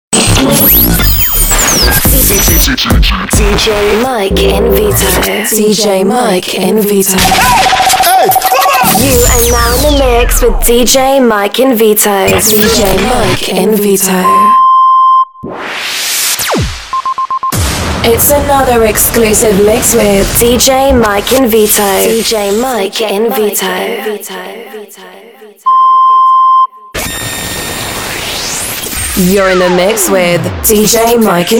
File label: Standard Female Dj Drops